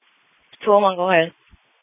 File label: Police Dispatch